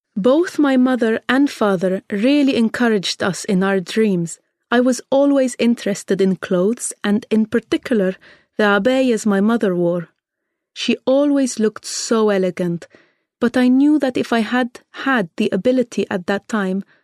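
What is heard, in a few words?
Arabic English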